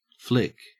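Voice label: "flic", Australian English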